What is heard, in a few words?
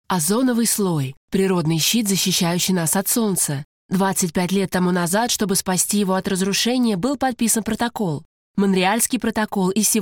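Russian, Corporate; GoLocalise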